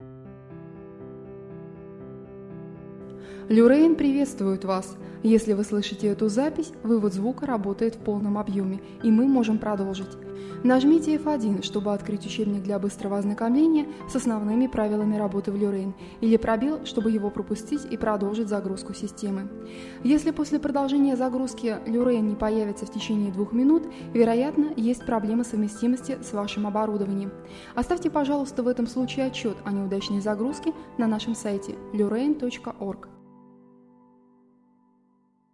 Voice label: greeting, ru